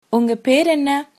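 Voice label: name, Tamil, female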